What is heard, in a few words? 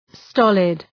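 "stolid", English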